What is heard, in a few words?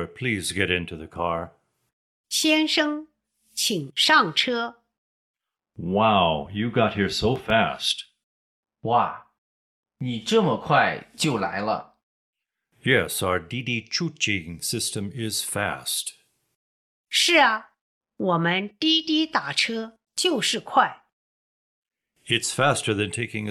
Dialog